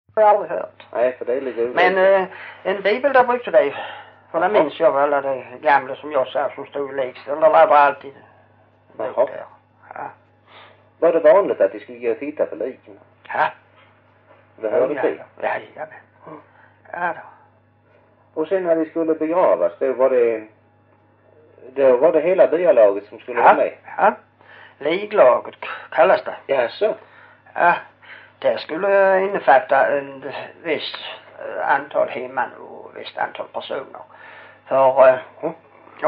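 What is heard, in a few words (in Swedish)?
Hörja Skåne, dialekter; Folkminnen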